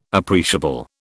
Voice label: "appreciable", British